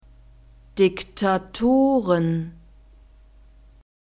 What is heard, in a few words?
"diktatoren", German